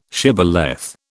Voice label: "shibboleth", British